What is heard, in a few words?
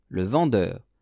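"le vendeur", French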